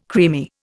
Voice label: "creamy", Indian